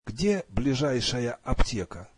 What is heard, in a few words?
russian Where is the closest pharmacy